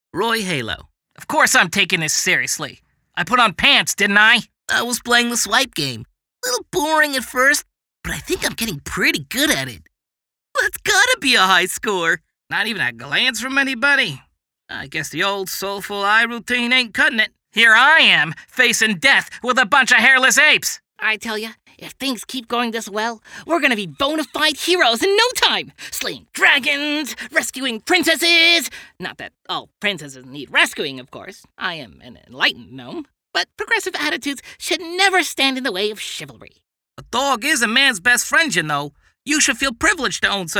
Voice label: Animation